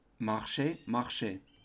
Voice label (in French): "marche marche", French; La Marseillaise